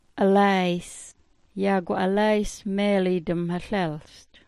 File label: "alaays", smalgyax